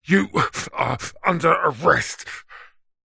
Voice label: human male uk police officer says you are under arrest struggling; zapsplat